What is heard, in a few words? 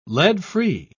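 "lead-free", English, British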